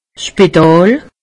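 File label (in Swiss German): "spital", Alsatian; medecine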